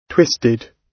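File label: "twisted", British English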